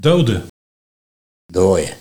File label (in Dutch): "Dooie", Nieuw lekkerlands dialect; Dode